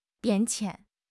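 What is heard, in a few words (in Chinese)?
"变迁", Chinese; HSK6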